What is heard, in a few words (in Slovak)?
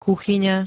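"kuchyňa", Slovak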